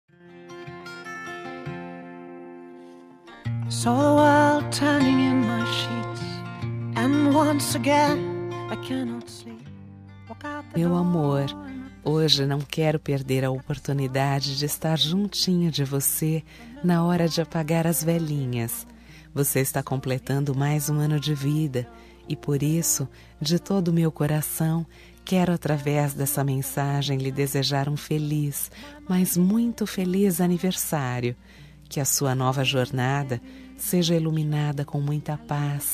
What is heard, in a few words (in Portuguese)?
Voz Feminina, Telemensagem; Telemensagem de aniversario romantico